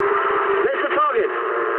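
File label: English near waypoint mood medium; War Thunder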